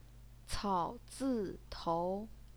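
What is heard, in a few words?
"cao zi tou", Mandarin Chinese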